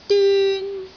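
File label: "dyun1", Cantonese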